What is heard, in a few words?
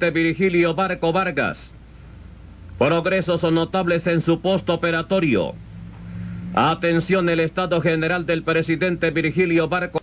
Colombia Alerta Bogota reverb